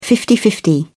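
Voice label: "fifty-fifty", English, British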